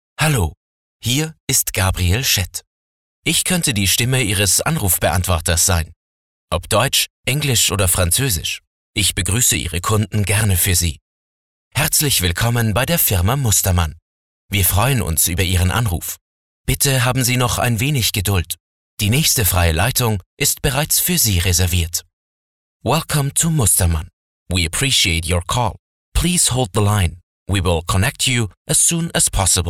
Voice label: Telefonansagen

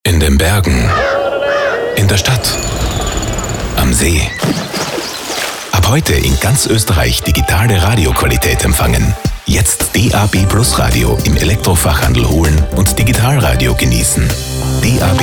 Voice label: Sprecher Showreel

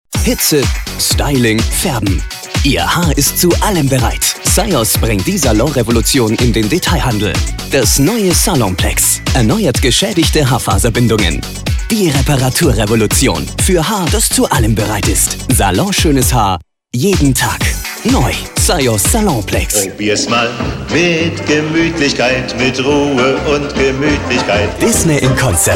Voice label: Sprecher Showreel; Professionelle Sprecher

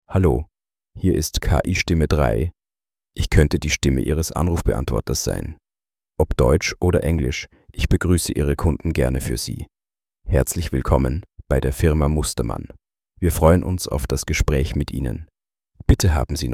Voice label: KI-Stimme

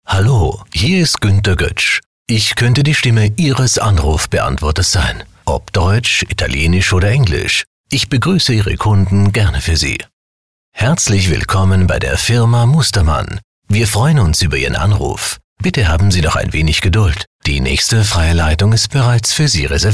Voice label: Telefonansagen

